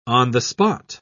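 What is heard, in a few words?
"on-the-spot", English, British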